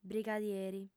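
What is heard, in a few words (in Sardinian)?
"brigadieri", female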